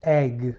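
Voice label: "egg", English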